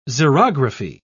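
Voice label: "xerography", British English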